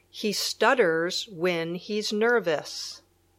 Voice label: stutter sentence; Palabra del Día